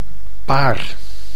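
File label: "paar", Dutch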